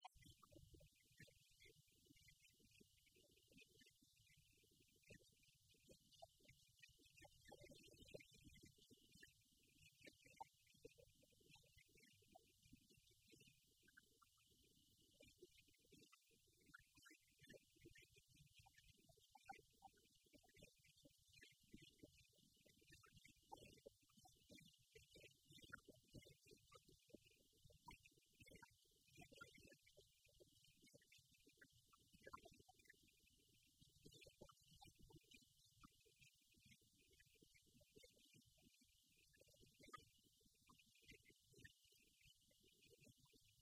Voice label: radio laos